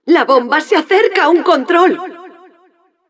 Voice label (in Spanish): Announcer cart attacker warning, es